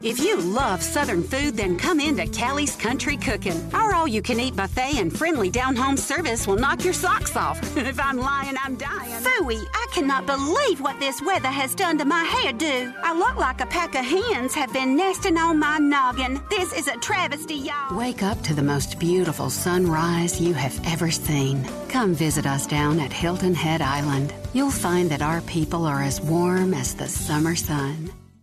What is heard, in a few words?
Southern